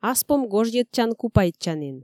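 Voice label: Komi, female; аспом гожйӧдчан-купайтчанін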